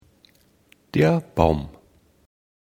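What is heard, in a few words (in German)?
"Baum", German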